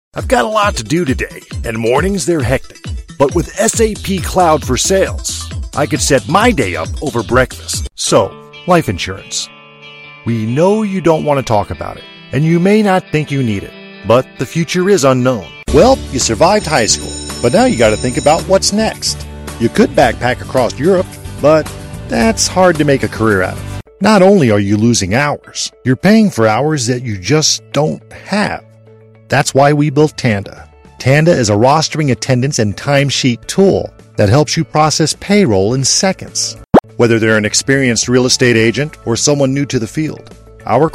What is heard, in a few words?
Explainer